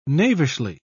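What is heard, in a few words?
"knavishly", British English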